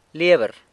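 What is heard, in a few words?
"liebr", book, San Lucas Quiaviní Zapotec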